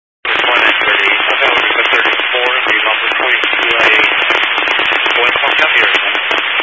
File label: Highway Patrol, scanner